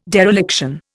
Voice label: "dereliction", Indian

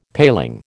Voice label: "paling", American

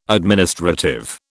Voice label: "administrative", British

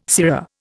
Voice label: "sirrah", Indian

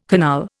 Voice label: "canal", Indian

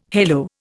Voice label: "halo", Indian

